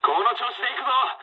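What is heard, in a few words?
Japanese player killed enemy mood low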